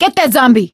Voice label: fallen survivor, survivor voice